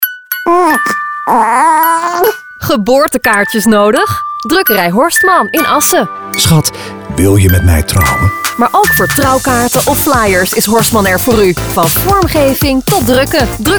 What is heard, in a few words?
Commercial Radio NL; Assen